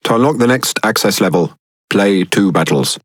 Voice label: Speech Access Level Unlock, EN GB; World Of Warships

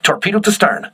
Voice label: voice message torpedo rear, crew ships english

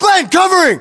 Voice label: response covering, battlechatter us

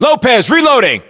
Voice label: US inform reloading, battlechatter, english

